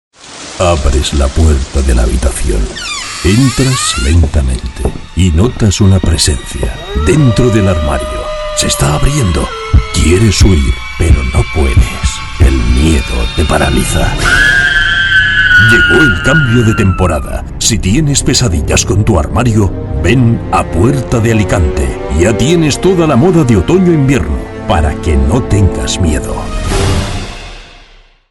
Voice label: commercial character